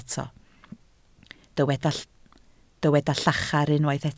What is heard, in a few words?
"llachar", Welsh